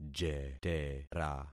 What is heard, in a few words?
"jetera", concat syllables; Affixing experiment